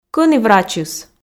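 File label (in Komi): кӧні врачыс, Komi, female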